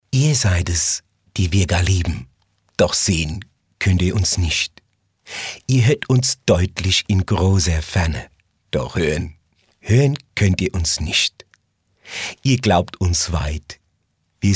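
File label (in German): hessisch, Dialekt, Sprecher, Männer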